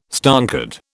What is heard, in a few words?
"stonkered", British